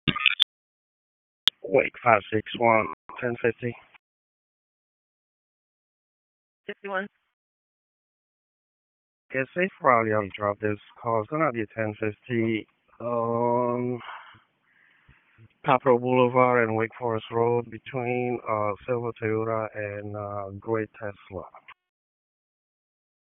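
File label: Dispatch 1, P25; WSO DISP 1; wake p25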